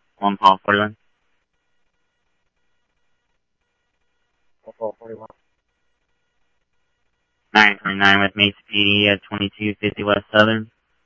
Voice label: Tempe Police, scanner audio